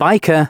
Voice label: announcements male